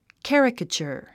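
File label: "caricature", English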